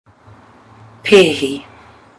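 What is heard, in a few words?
"pehi", Māori; kea life cycle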